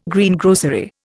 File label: "Greengrocery", Indian